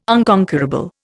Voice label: "unconquerable", Indian